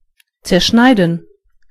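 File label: chop, German voices words; gcompris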